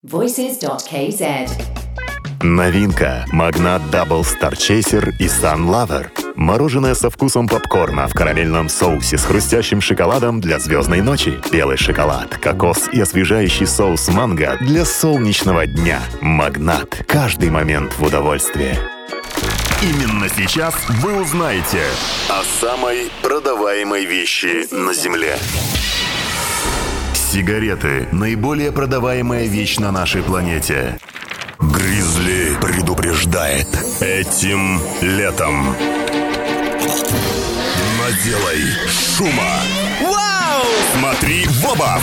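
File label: Russian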